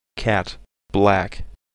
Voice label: "cat" "black"